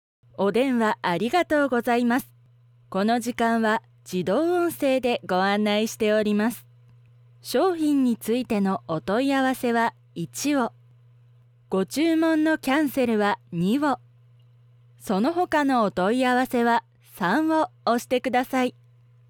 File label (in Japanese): ナレーションサンプル 自動音声案内